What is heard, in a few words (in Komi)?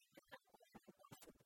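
кутшӧм тэнад оланінпасыд, Komi, female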